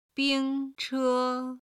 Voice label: "bing1 che1", Chinese